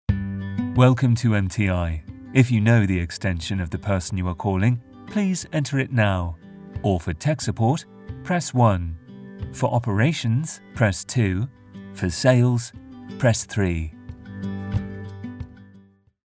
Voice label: IVR